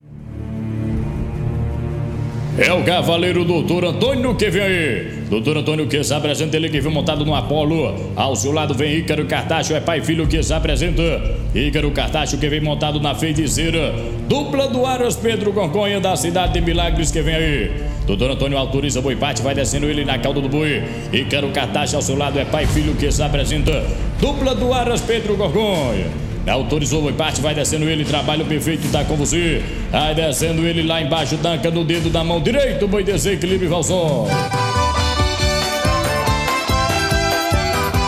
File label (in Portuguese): negão locutor